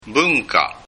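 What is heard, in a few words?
"bunka", Japanese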